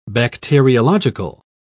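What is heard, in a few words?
"bacteriological", British English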